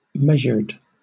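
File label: "measured", British English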